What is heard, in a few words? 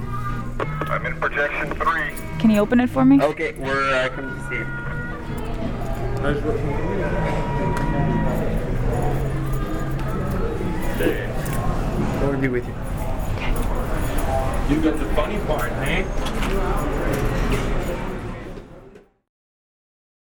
theatre to lobby walkie; Theatre to Lobby - Over the Walkie; Soundscaping the Paramount